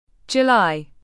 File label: "July", UK; dʒuːˈlaɪ; تلفظ بریتانیایی ژوئیه